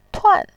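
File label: "tuan", Mandarin Chinese, fourth tone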